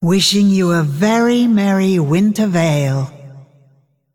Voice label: Female Dragon HAPPY HOLIDAYS